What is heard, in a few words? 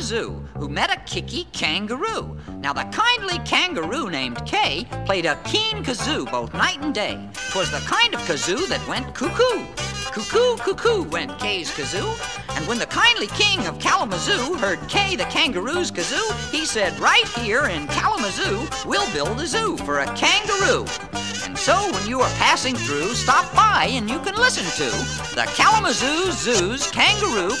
silly poem; herbert birdsfoot